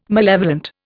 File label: "malevolent", Indian